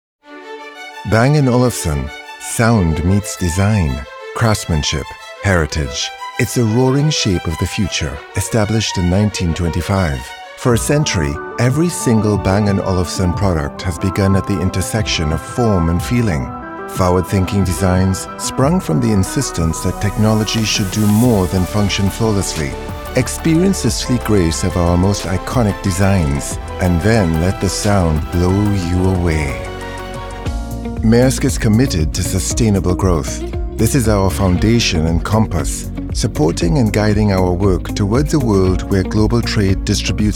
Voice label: Corporate, narration